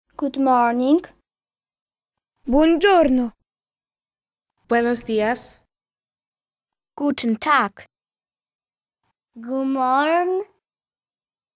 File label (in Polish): Dzień dobry w różnych językach